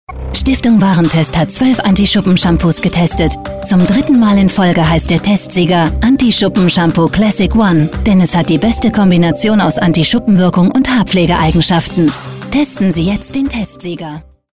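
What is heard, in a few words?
German, female, commercial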